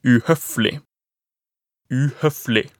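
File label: "uhoeflig", Norwegian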